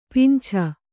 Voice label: "ಪಿಂಛ", Kannada